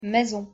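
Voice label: pronunciation "maison", French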